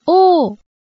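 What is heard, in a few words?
"oo", Japanese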